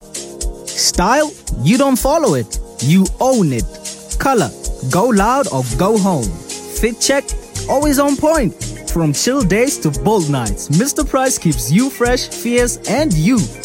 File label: Upbeat